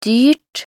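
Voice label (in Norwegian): "dyrt", Norwegian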